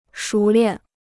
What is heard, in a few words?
"熟练", Chinese